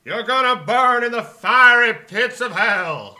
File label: Preacher Lose; blackjack